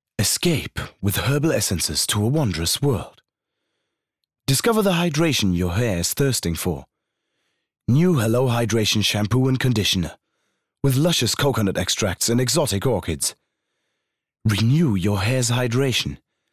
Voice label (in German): uk englisch